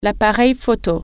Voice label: camera, french